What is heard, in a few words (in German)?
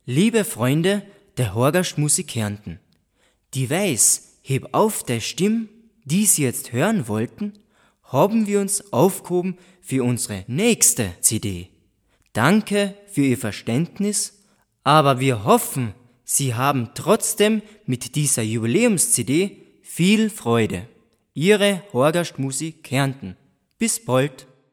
Ansage